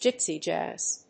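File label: "Gypsy jazz", English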